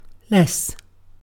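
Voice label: "less", British English; pronunciations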